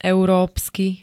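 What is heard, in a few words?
"európsky", Slovak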